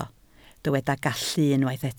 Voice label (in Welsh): "gallu" Welsh